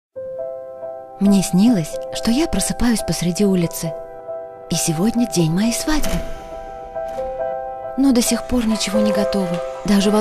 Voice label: russian narration